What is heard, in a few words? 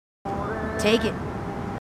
marines female; h2dialogue